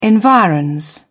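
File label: "environs", English, British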